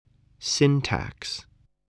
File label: "syntax", English